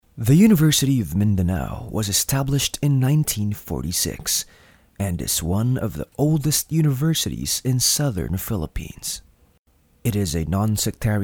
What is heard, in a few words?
docu VO